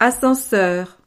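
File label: "ascenseur", French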